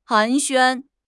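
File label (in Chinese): "寒暄", Mandarin Chinese; HSK6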